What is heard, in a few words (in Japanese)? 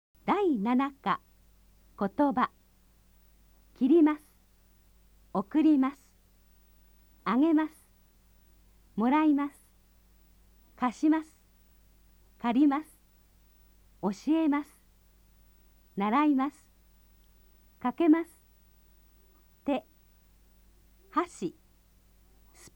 nihongo vokabeln